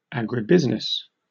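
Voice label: "agribusiness", British English; pronunciations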